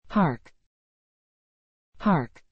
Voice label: "park", English

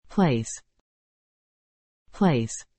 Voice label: "place", English

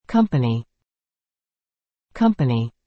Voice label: "company", English

